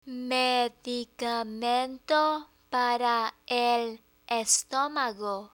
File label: "medicamento para el estomago", Spanish; learn spanish